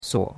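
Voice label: "suo3", Mandarin Chinese; Cours de Chinois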